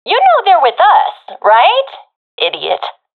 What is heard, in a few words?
sees friend killed, professional fem; vo; Counter Strike Model Pack; CS:GO